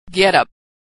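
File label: "get up", American English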